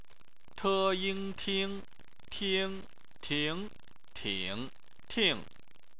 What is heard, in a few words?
"ting", Chinese